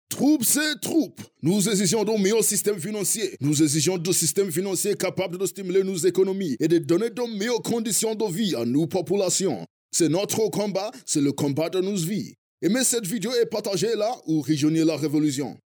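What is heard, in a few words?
African French, male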